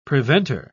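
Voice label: "preventer", British English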